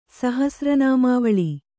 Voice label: "ಸಹಸ್ರನಾಮಾವಳಿ", Kannada